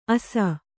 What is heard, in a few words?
"ಅಸ", Kannada